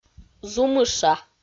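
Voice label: "зумыша", Komi, female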